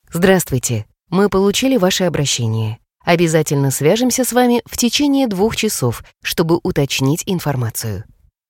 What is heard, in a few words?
IVR